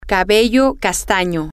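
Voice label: brunette, spanish, female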